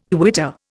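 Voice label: "quitter", Indian English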